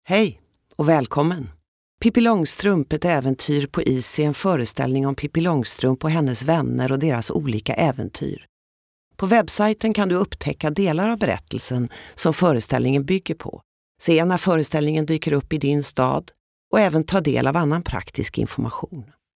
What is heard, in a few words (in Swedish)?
svensk kvinna